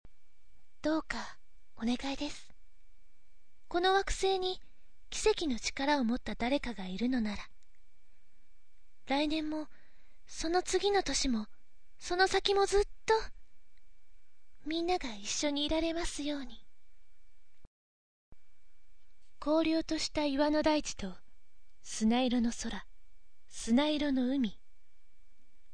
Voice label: voice onna